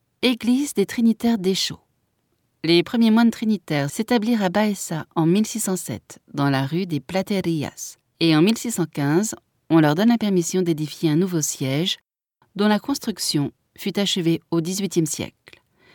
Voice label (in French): audioguia, frances; audioguia baeza